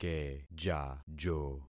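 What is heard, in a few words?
"kejajo", concatenated syllables; Affixing experiment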